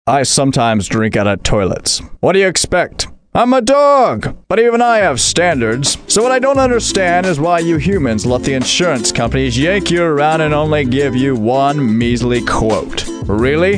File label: radio commercial; myStarke